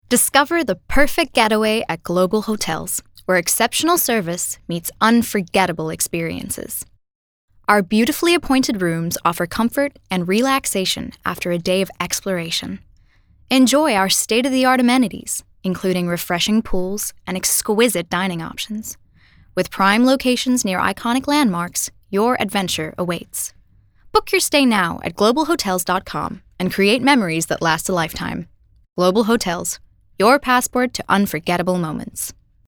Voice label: Soft Sell American Standard

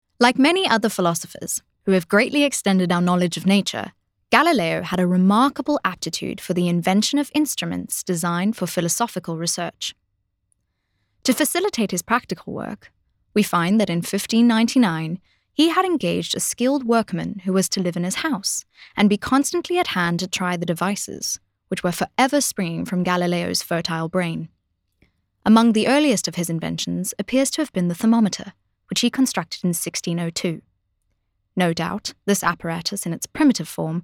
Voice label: Narrative Non Fiction

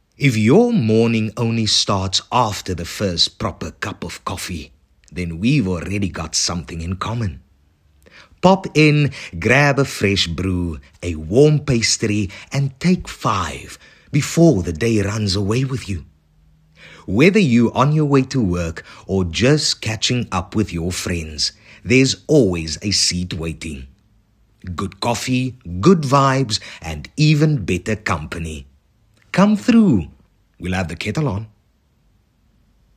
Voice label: English Kaaps dialect Medium Sell

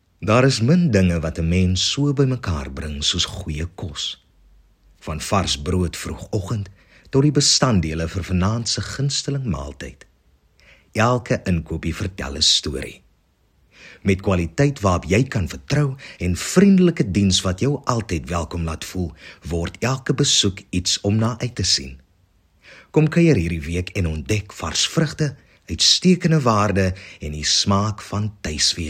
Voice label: Afrikaans Soft Sell

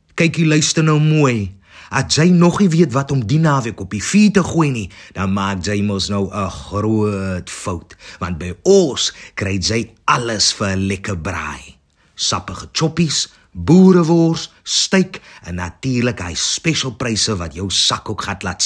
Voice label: Afrikaans Kaaps Colloquial Medium Sell

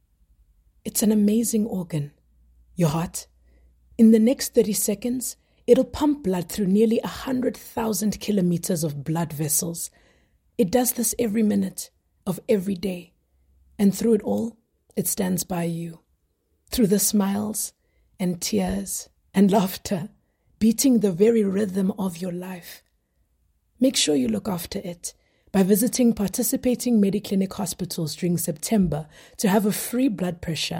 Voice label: English Conversational

